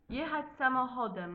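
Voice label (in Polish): "jechac samochodem", Polish; multimedia glossaries; transport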